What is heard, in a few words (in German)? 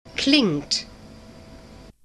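"klingt", German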